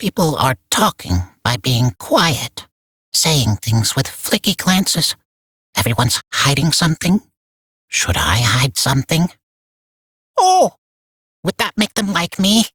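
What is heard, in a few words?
Barks Bird, English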